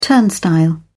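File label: "turnstile", British English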